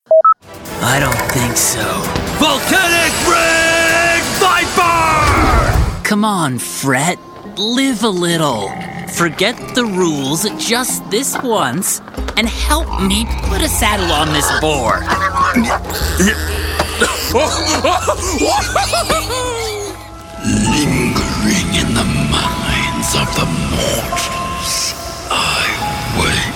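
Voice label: English Canadian M Gaming, accent of canada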